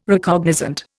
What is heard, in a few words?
"Recognizant", Indian